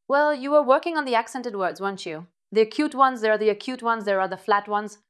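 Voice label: VoiceActing Spanish, female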